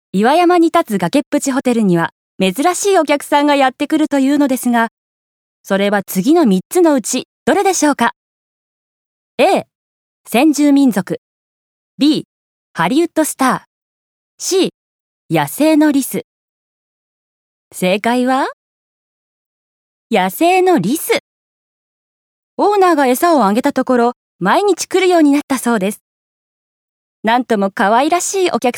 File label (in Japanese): 地域紹介ナレ